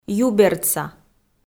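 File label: "юбердса", Komi, female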